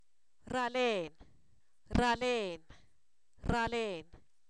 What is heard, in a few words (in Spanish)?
enojo, Zapoteco, san miguel albarradas; enfermedades